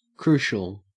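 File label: "crucial", Australian English; pronunciations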